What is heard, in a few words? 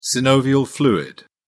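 "synovial fluid", British English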